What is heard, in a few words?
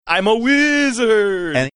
saying im a wizard funny